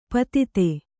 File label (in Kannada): "ಪಾಥೋದಿ", Kannada